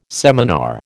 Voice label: "seminar", American